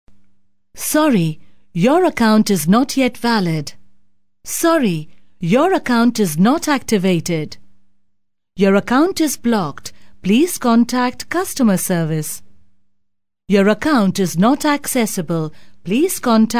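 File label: English Indian Female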